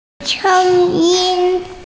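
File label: "sheng yin" Chinese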